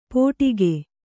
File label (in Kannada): "ಪೋಟಿಕೆ", Kannada